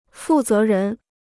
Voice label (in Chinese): "负责人", Chinese